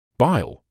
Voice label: "bile", medical english uk; bighl; baɪl

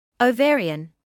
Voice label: "ovarian", medical English

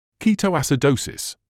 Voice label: "ketoacidosis", English

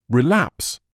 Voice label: "relapse", English

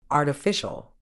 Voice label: "artificial", Medical English; ˌɑː.tɪˈfɪʃ.əl; ah-tuh-FISH-uhl

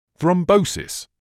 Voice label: "thrombosis", English

